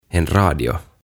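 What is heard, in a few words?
"En Radio", Swedish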